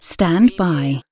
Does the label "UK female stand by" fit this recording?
Yes